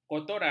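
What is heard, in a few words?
"kotoran", Malay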